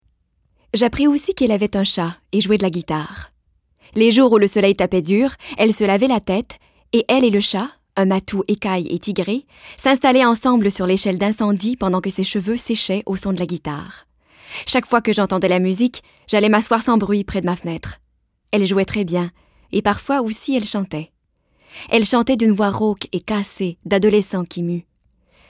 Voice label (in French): narration